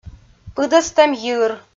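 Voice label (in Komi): "пыдӧстӧм йир", Komi, female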